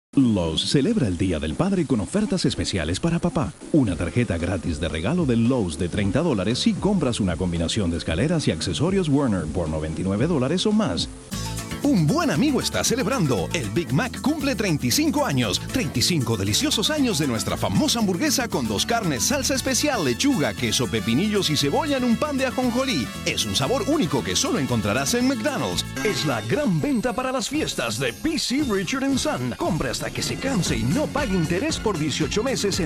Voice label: Spanish